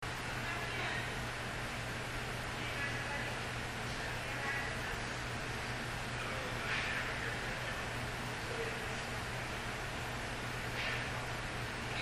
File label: Male Voice